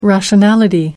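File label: "rationality", British English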